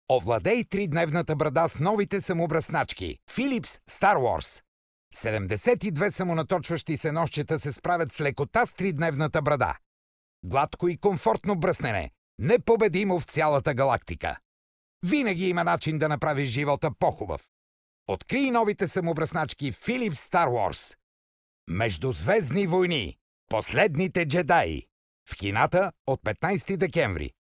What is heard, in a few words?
bulgarian m commercial; voice demos